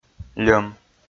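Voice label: "льӧм" Komi, male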